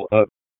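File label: Police District Tactical, p25; Raleigh; Downtown; wake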